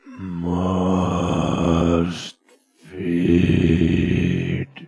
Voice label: must feed, zombie speech